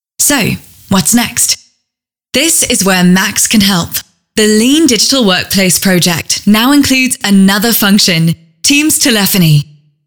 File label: UK